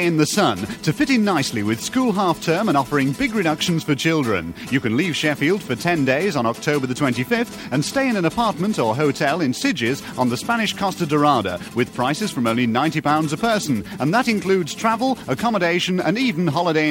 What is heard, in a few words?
Radio Advert, Tours; Radio Hallam; Carnell